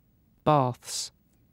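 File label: "baths", British English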